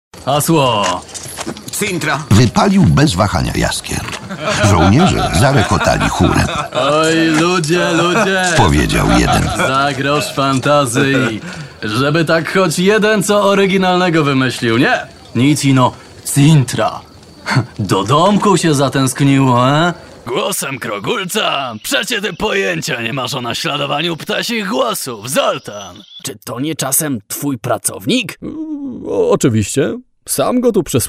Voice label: polish animation

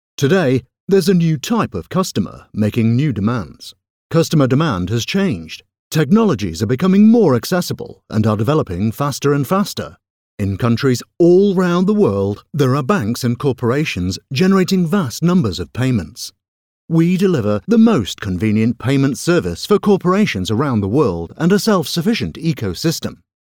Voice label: english british promos

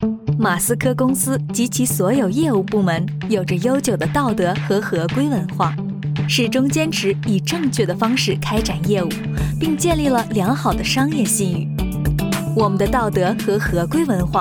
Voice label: chinese mandarin e-learning

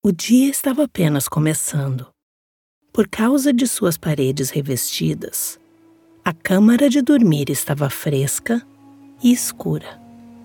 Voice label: english international audiobooks

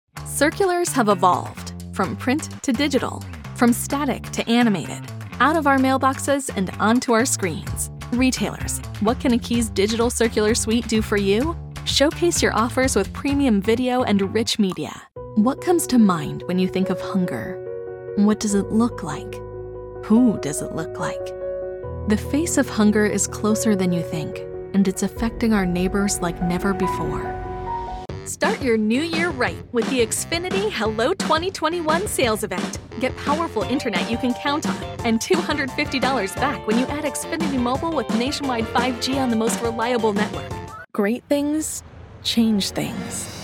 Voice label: english american others

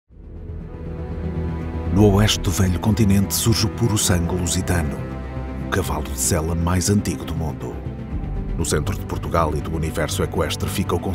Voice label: portuguese narration

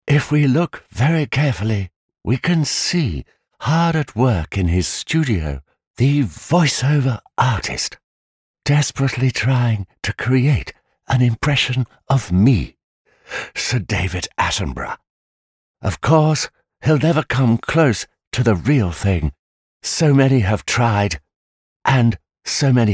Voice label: english british impersonations; Vereinigtes Königreich; Englisch (Britisch); Britische Muttersprachlerstimme

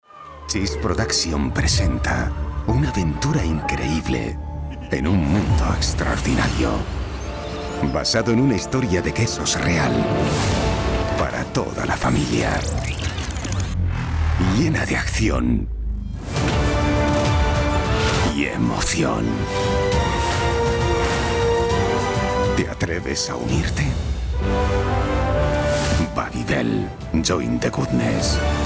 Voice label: spanish movie trailers

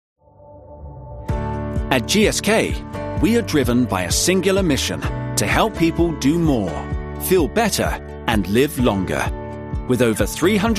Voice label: english british corporate videos; UK voice actor

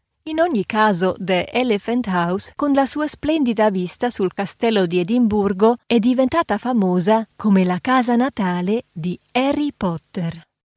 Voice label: italian audiobooks

